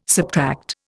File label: "subtract", Indian